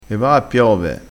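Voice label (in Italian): "levassi da tutti i", dialettale crespinese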